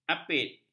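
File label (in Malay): "apit", Malay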